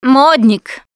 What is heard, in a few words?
name gambler, russian, Survivor Voice Producer